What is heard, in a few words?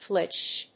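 "flitch", English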